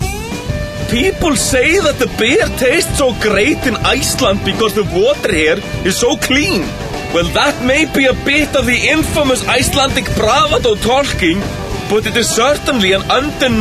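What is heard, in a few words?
Icelandic, English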